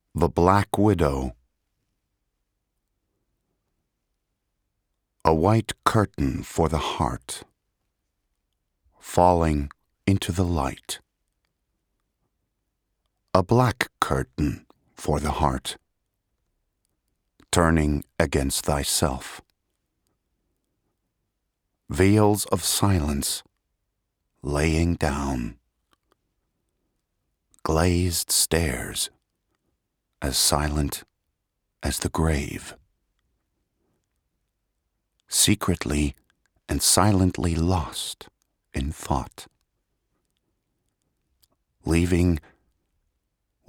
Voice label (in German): US Gedicht, englisch; sprecher